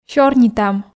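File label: "сёрнитам", Komi, female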